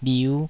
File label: "bhiu5", taiwanese Syllables